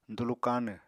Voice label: "dulu káanw", Apatani

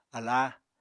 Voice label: "aláa", Apatani

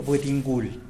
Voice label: "budingul", tlacochahuaya; turkey

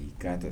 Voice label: "to cut", Kibembe

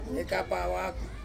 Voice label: "kapa awaku", Ansus; to smoke

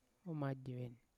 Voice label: "omajeven" not, Yawa

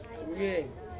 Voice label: "vieng" good, Ansus

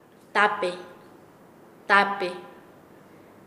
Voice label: Nasa Yuwe; ancho; paez

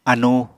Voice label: "anu", Apatani

